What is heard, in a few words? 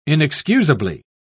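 "inexcusably", British English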